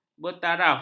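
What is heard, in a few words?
"bertaraf", Malay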